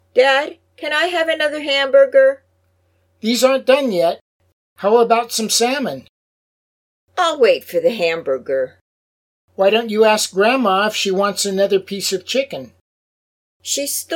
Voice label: Natural Speech